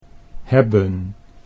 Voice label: "hebben", Dutch